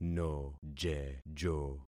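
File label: "nojejo", concatenated syllables; Affixing experiment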